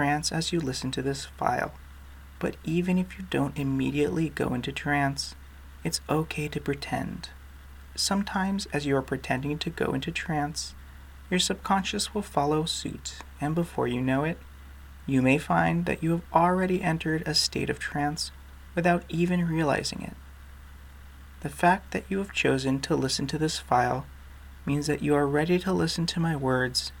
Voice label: diaper training binaural, hypno